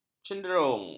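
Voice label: "cenderung", Malay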